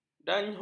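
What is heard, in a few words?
"dachshund", Malay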